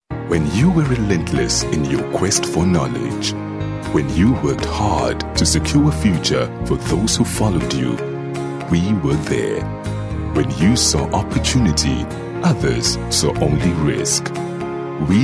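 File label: English Soft Sell South African Neutral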